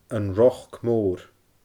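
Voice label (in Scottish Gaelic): "An Roc Moor", Scottish Gaelic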